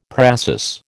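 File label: "précis", American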